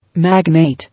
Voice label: "Magnate", English